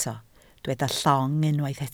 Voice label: "llong", Welsh

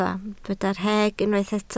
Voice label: "rheg", Welsh

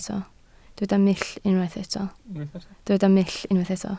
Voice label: "mull", Welsh

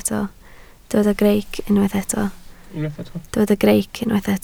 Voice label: "greic", Welsh

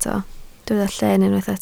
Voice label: "lleen", Welsh

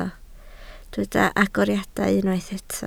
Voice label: "agoriadau", Welsh

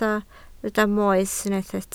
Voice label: "moes", Welsh

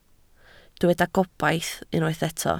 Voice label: "gobaith", Welsh

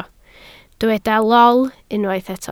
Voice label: "lol", Welsh

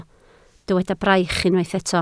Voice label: "braich", Welsh

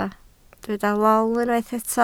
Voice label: "lol", Welsh

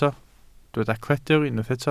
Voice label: "cledr", Welsh

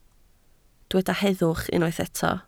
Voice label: "heddwch", Welsh

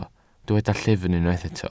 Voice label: "llyfn", Welsh

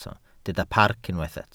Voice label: "parc", Welsh

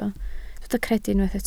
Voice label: "credu", Welsh

